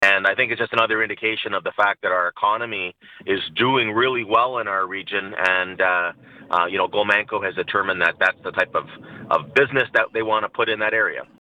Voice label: commercial